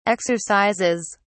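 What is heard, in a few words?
"exercises", British English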